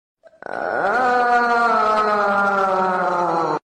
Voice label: plankton aaaaa speed up